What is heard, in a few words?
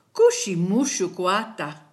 new year, kichwa